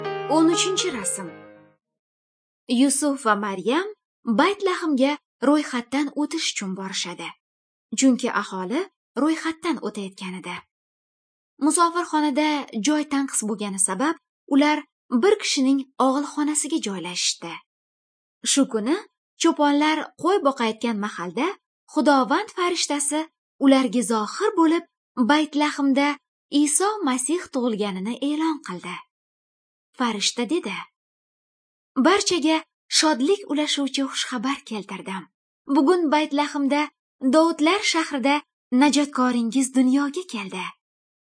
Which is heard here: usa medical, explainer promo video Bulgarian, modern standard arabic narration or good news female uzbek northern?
good news female uzbek northern